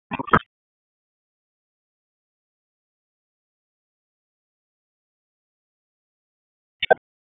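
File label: Raleigh Police Northeast District Tactical; wake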